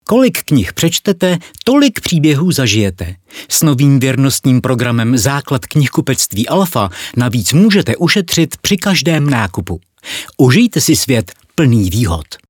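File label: Czech, male, commercial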